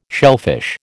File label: "shellfish", American